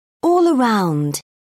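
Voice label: "all-around", English, British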